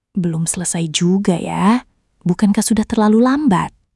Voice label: indonesia, negative phrases